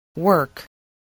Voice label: "work", voice, english